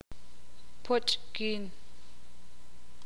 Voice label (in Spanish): "estrecho", ixil